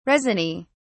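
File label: "resiny", British English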